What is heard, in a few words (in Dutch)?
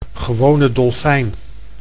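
"gewone dolfijn", Dutch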